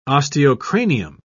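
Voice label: "osteocranium", British English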